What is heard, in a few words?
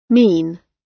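"mean", British English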